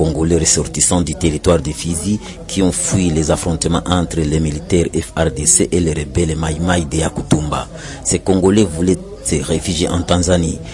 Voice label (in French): REFOULE DU BURUNDI francais